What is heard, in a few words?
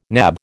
"nab", American